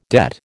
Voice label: "debt", American